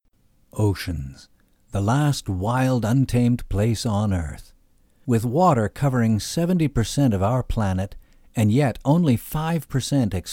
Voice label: demo VARIETY OF VOICES